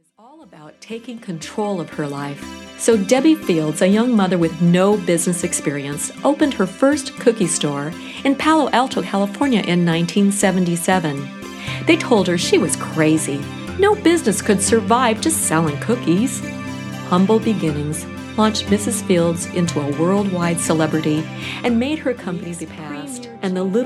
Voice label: Narration, Female voices